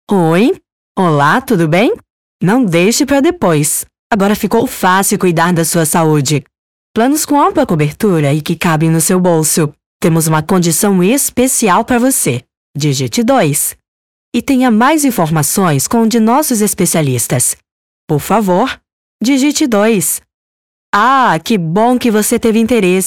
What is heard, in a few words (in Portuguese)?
espera telefonica